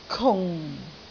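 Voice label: "kung4", Cantonese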